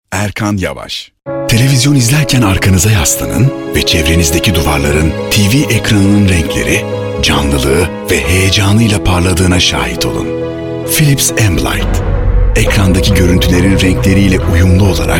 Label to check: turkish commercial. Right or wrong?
Right